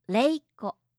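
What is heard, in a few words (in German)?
"leiko", japanische Mädchennamen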